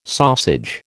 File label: "sausage", American